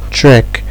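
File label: "trek", Nigerian Pidgin, male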